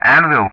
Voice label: "Anvil", Speech ENG; Ka-50; Callsign